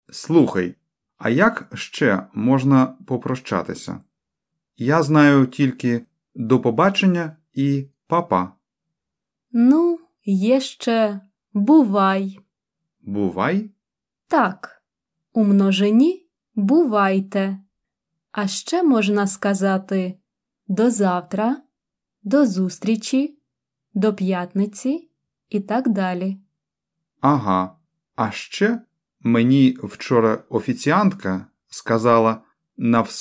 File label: Dialogue Slow